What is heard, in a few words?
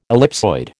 "ellipsoid", American